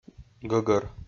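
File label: "гӧгӧр", Komi-Zyrian, male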